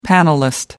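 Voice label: "panelist", British English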